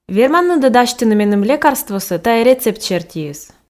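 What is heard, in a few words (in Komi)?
"верманныд-ӧ дасьтыны меным лекарство тайӧ рецепт сертиыс", Komi, female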